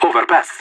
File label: overpass, radio; LEET